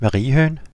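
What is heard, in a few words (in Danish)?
ladybug desc danish; insects; cartoon; tuxpaint-stamps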